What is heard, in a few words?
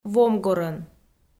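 "вомгорӧн", Komi, female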